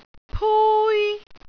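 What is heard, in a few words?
"pui1", Cantonese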